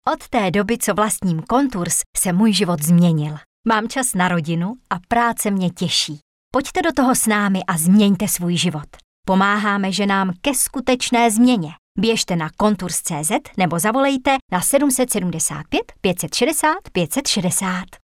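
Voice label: female Czech commercial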